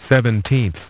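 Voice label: "seventeenth", English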